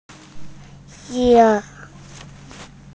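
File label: "se4", Mandarin Chinese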